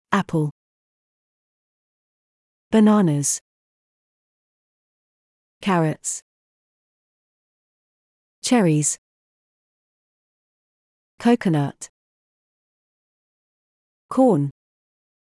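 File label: British; fruits veg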